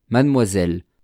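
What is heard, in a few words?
"mademoiselle", French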